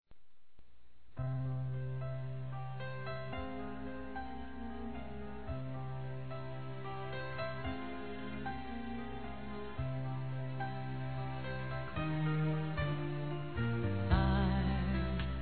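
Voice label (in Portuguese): amor desculpa masculino, Telemensagens; Desculpas; Telemensagens 2017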